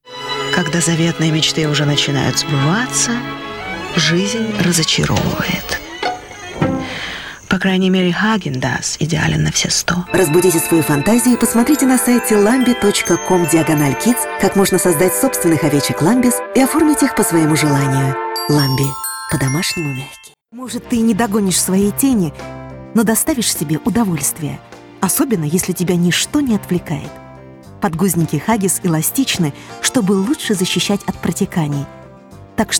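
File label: Russian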